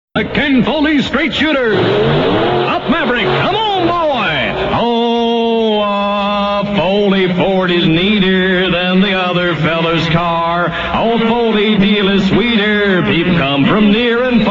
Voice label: spot; ken foley ford

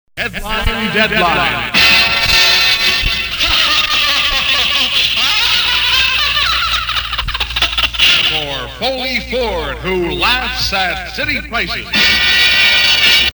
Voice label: sweeper; headline deadline open

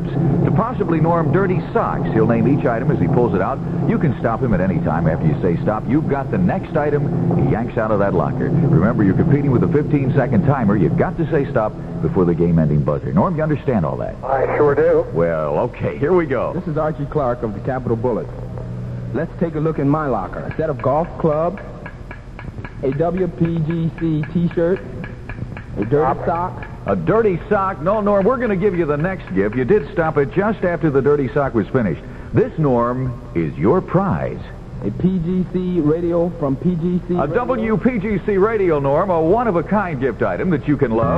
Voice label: contest bonus basketball male winner; columbus

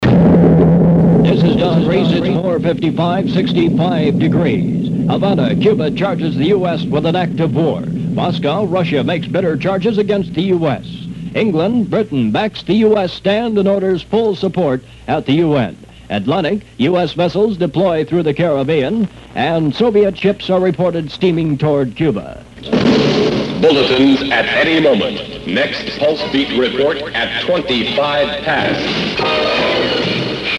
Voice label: news; 1962; cuban missile crisis

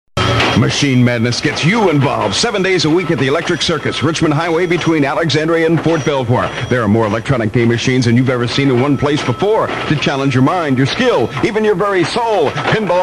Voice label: spot; electric circus

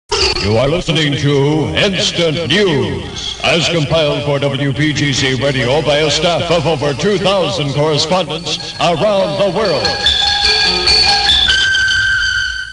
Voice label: sweeper instant news

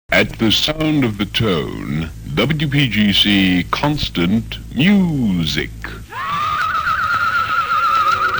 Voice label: sweeper constant music

